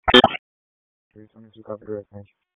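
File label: Police Northeast District Dispatch; Raleigh; wake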